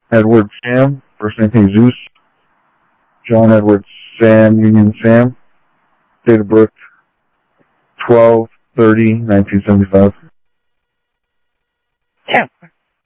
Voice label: Sheriff Common, scanner audio; ThompsonPeakScot; MCSO - Info